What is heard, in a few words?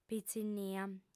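"pitzinnia", Sardinian, female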